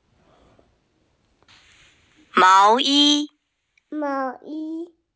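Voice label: "sweater", hearing impaired; mao2yi1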